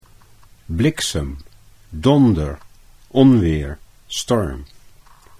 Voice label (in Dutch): "bliksem donder", Dutch